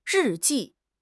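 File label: "ri4 ji4", Chinese; HSK4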